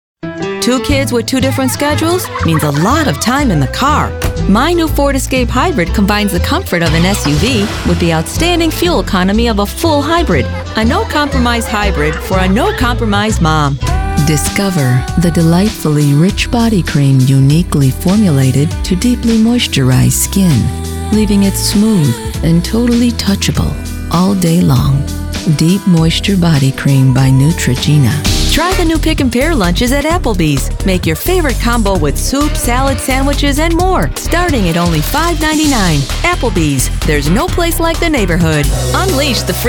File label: Commercial